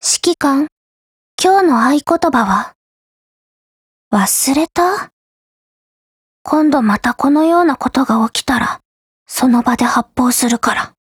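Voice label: HELLO, Japanese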